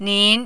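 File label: "nin", Mandarin, second tone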